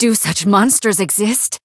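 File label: encounter fear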